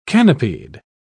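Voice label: "canopied", British English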